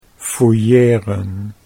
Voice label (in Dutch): "fouilleren", Dutch